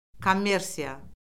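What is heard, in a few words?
"kommersiya", Azerbaijani; Orfoqrafiya Lüğəti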